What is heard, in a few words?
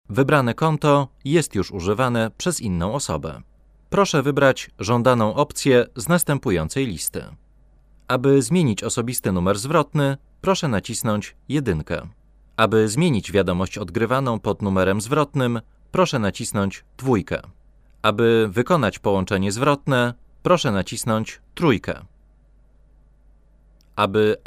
Polish, voice talent